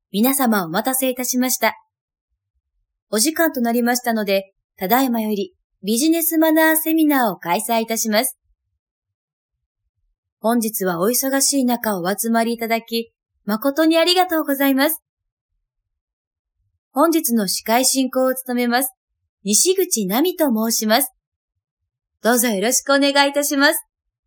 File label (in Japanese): セミナー ボイスサンプル